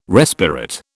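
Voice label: "respirate", British English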